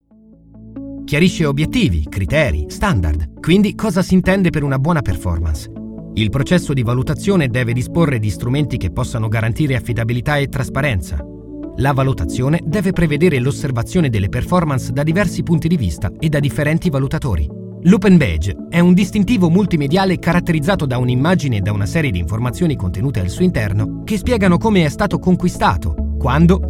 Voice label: e-learning